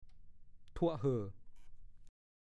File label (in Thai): "ทั่วะเห่อ", ภาษาโคราช; ซิ เถอะน่า; พจนานุกรมภาษาโคราช